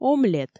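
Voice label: "омлет", Komi, female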